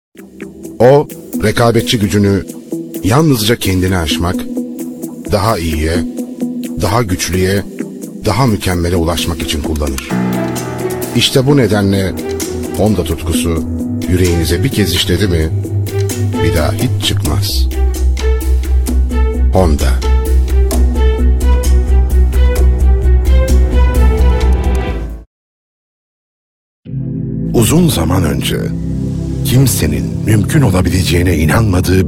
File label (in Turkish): erkek sesler, turkce seslendirme